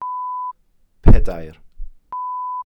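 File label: "pedair", Welsh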